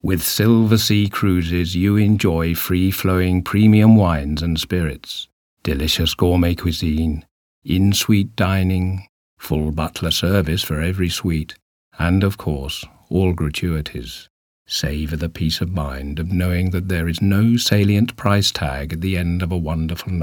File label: Commercial